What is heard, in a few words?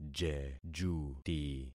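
"jejuti", concatenated syllables; Affixing experiment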